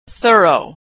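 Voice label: "thorough", American English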